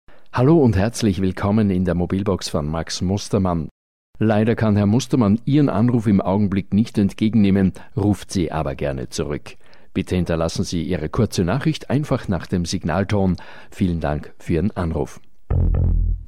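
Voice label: Male German Austrian IVR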